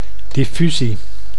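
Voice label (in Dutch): "diffusie", Dutch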